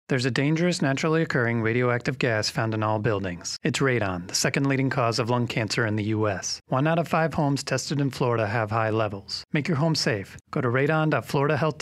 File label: radon radio psa; Environmental Health; Outreach